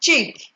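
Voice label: "chick", Nigerian Pidgin, female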